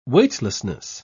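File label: "weightlessness", British English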